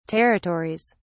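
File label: "territories", English, British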